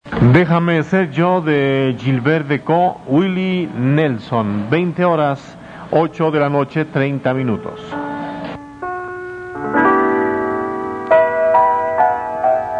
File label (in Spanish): Radio; Mexico; 1290; Radio Trece; XEDA